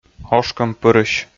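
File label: "ошкӧмпырысь", Komi, male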